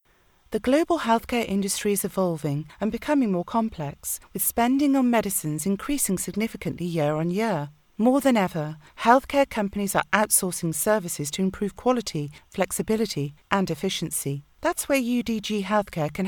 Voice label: Marketing Video; UDG Healthcare